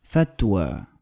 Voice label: "Fatwa", Arabic